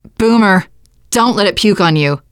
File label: heard boomer, teen girl; player; survivor; voice